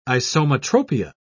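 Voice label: "isometropia", British English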